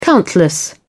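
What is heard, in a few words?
"countless", British English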